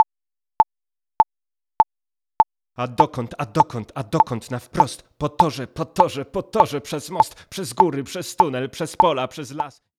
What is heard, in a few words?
speech Pitch; phase 0